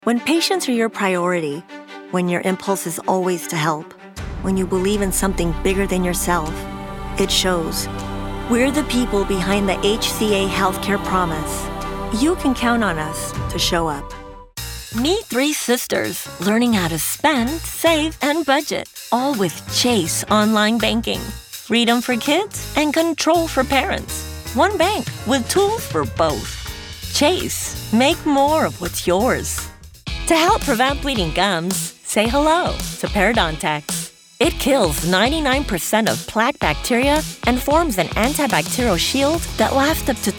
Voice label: Commercial Reel